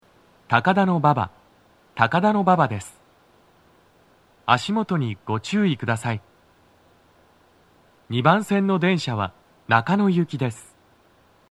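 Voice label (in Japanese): 到着放送, 男声, 足元注意喚起放送が付帯されており, 中野行; 2番線 中野・三鷹方面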